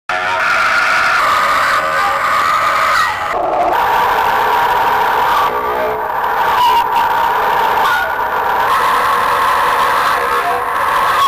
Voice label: E06 training 4760 KHz; Crema

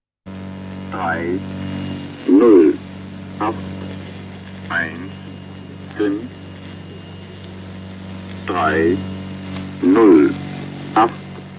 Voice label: The German Man, numbers stations; G19; Conet Project

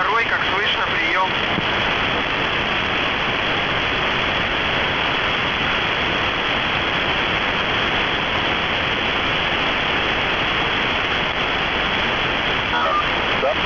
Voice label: russian, numbers stations; DMT 5461khz

